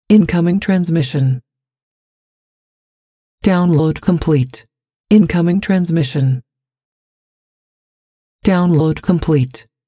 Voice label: Incoming Transmission Download Complete Female Robot